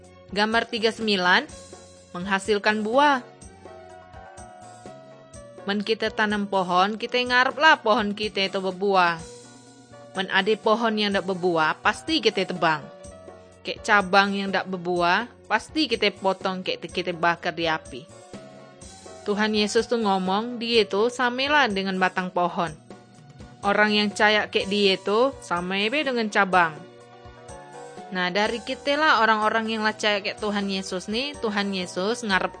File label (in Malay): kabar baik good news malay bangka